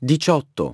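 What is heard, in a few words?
"eighteen", English; iOS 4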